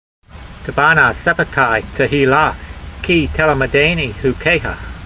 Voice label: voice, hebrew language; Psalm 119:171; taba'nah sefatai teheelah, kee telamedaynee hukeyha